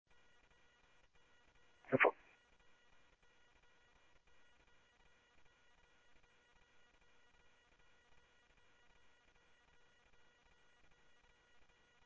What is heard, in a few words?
Mesa Simulcast, MESA PD, scanner audio